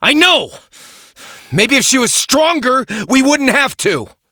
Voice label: Operator Voice, en; Warframe; New War